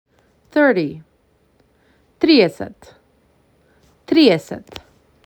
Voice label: MAC 6, macedonian phrasebook

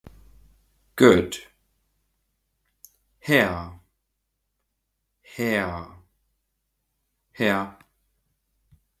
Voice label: Good, Estonian phrasebook; the talking estonian phrasebook

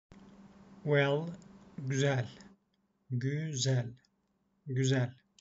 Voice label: well, Turkish; the talking turkish phrasebook

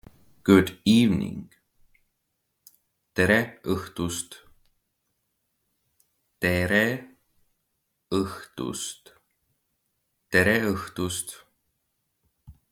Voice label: Good evening, Estonian phrasebook; the talking estonian phrasebook

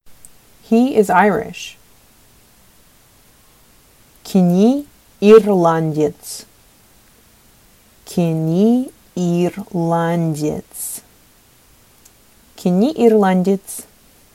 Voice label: Sakha Hes Irish

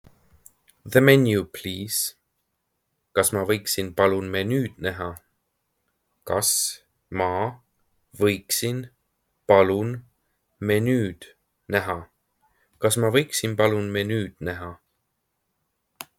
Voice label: The menu please, estonian; the talking estonian phrasebook

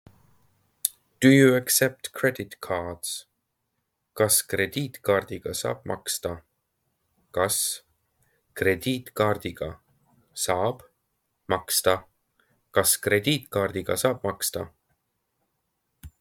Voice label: Do you accept credit cards, Estonian; the talking estonian phrasebook

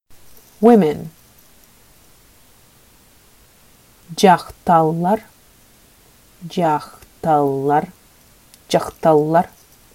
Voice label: "Women", Sakha

